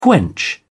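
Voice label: "quench", British English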